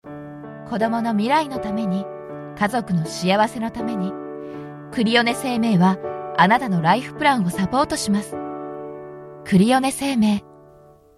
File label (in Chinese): 保险公司广告, 亲切语调